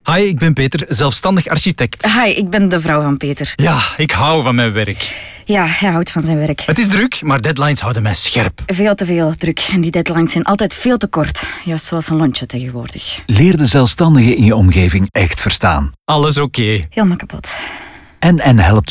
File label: radio spot